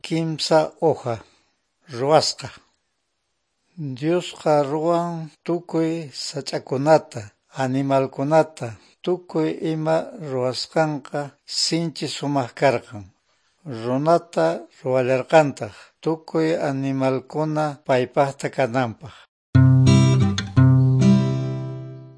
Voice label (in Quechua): good news quechua norte de bolivia apolo; bible